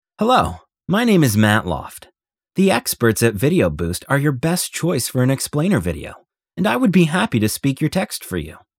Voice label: American English; internationale voiceover